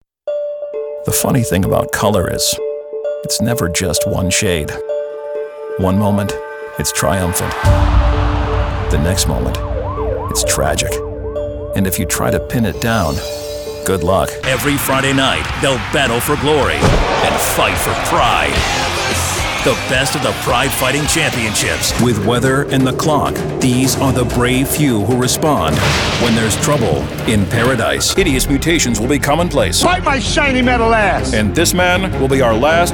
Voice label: Promo mx; Male

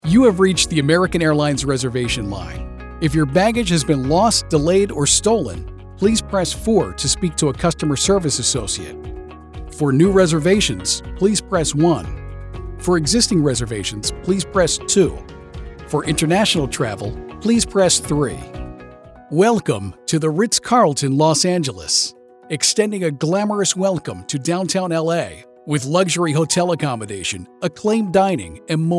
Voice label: telephone on hold; Male

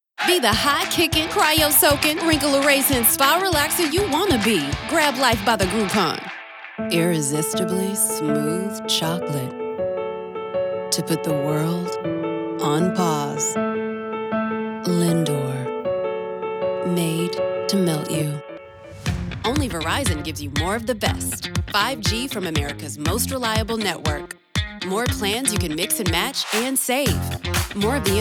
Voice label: Commercial; Commercial Demo

